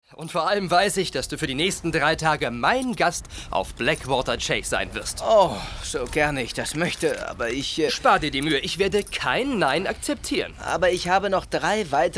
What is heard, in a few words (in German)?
hoerspiel; gruselkabinett